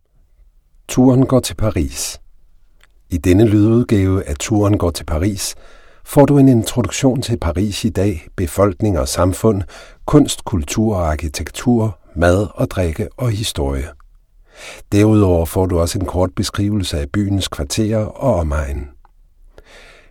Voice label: danish narration

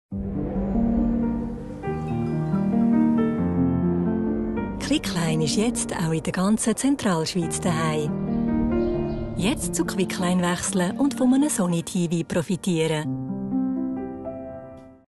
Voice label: german switzerland promos

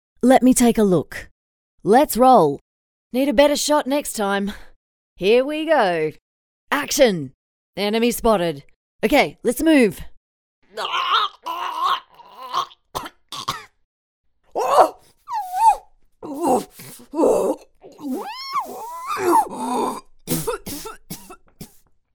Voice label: english australian video games

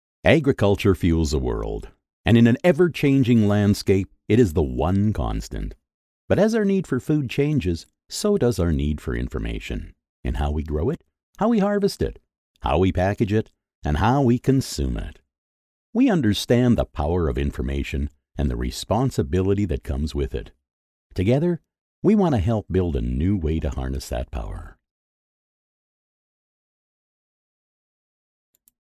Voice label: english american podcasts

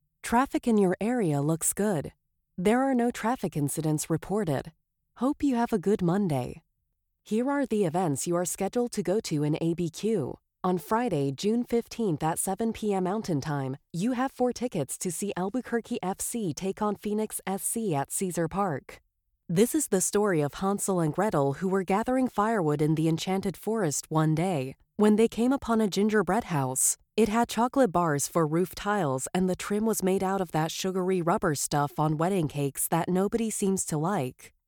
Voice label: english american

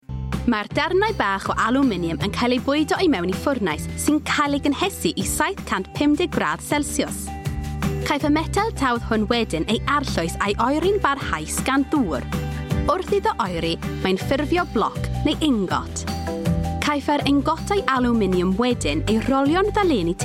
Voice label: welsh explainer videos

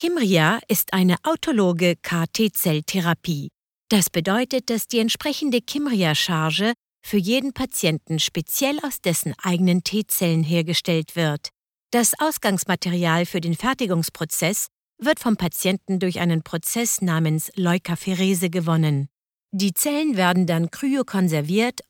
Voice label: German e-learning

